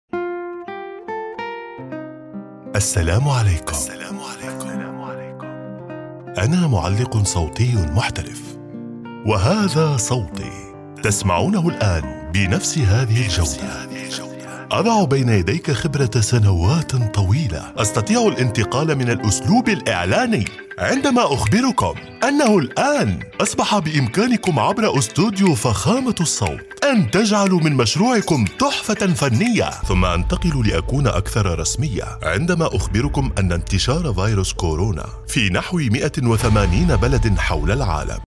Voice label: arabic commercial